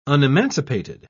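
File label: "unemancipated", British English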